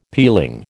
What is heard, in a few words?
"peeling", American